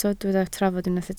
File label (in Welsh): "trafod", Welsh